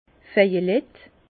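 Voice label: "vejelett", Alsatian, Bas Rhin, violet; vin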